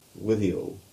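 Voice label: English; Withiel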